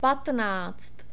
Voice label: "patnáct", Czech; numbers